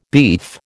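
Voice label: "beef", American English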